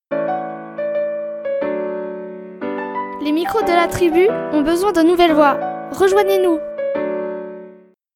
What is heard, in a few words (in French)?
jingle besoin de nouvelles voix